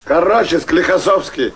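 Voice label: "koroche", Russian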